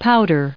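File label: "powdered", us English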